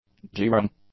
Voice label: "jeering", English; nouns

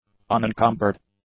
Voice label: "unencumbered", English; adjectives

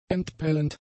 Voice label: "entpellend", German; adjectives

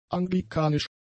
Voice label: "anglikanisch", German; adjectives

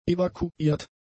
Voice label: "evakuiert", German; adjectives

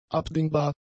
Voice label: "abdingbar", German; adjectives

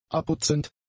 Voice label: "abputzend", German; adjectives

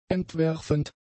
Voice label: "entwerfend", German; adjectives

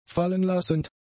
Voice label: "fallenlassend", German; adjectives

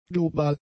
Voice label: "global", German; adjectives

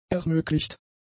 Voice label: "ermöglicht", German; adjectives

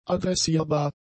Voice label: "adressierbar", german; adjectives

